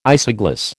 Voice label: "isogloss", American

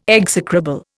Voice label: "execrable", Indian

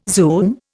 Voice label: "zoom", Indian

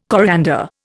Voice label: "coriander", Indian

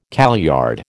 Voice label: "kaleyard", American

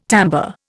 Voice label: "timbre", Indian

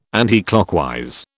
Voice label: "anticlockwise", British

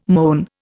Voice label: "moan", Indian

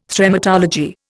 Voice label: "Thremmatology", Indian

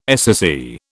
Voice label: "SSE", British

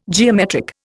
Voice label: "geometric", Indian

